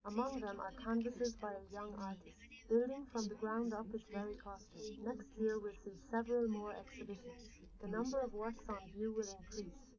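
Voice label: female, live recording; SiSEC11; underdetermined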